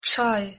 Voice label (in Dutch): "čaj", Sloveens; thee-in-andere-talen